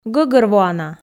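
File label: "гӧгӧрвоана", Komi, female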